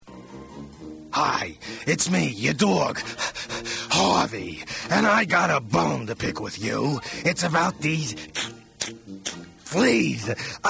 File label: Voiceover